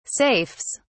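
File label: "safes", English, British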